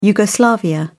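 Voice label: "yugoslavia", British English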